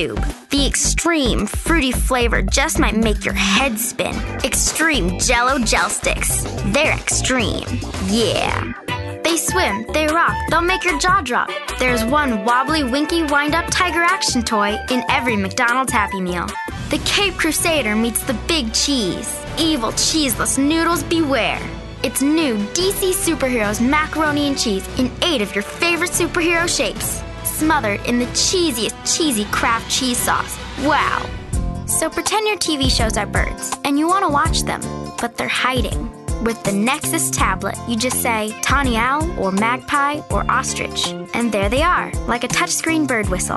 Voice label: Kids Teens